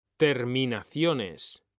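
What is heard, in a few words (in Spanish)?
"terminaciones", Spanish; mekanta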